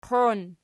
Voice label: "qoin", Archi

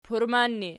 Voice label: "purman", Archi

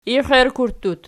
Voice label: "ixittut", Archi

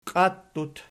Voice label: "qiattut", Archi

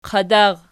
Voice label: "qadar", Archi

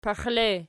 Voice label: "pexile", Archi

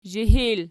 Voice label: "zhihil", Archi

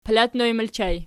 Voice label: "palatnoj", Archi

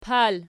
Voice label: "pal", Archi

